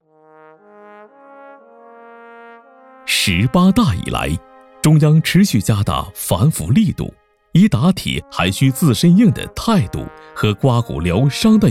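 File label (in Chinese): 专题片税务局廉政教育片; 巨鹿县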